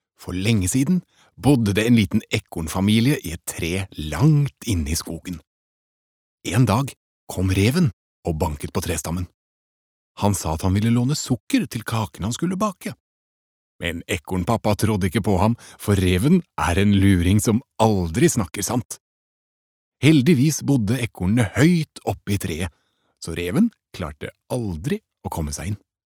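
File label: Norwegian children's book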